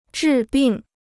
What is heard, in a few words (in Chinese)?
"治病", Chinese